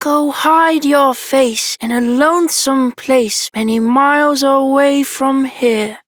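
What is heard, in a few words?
Child Rhymes Fear Child Male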